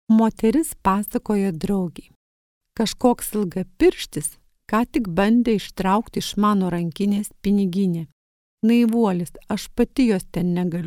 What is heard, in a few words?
female lithuanian voice over narrative